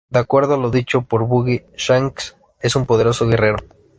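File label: "poderoso", Spanish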